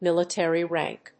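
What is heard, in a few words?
"Military Rank", English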